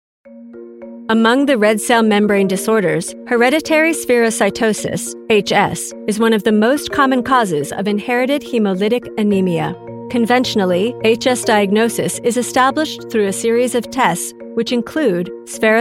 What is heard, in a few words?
english american medical narration